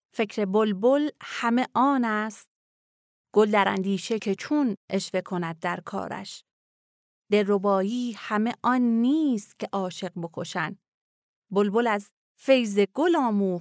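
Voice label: Farsi, female; farsi voice over talent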